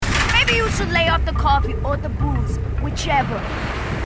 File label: female marine